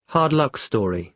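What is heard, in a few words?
"hard-luck story", British English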